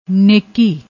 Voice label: "neki", Punjabi